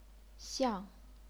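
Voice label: "xiang", chinese pinyin, fourth tone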